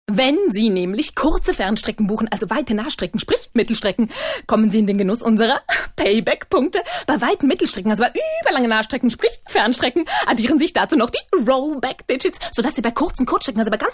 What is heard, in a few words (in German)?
Werbung Energisch